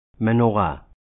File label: "Menorah", Hebrew